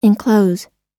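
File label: "enclose", English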